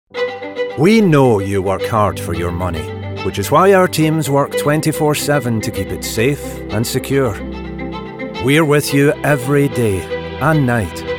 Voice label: commercial